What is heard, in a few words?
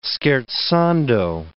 "scherzando", English